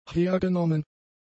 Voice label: "hergenommen", German; adjectives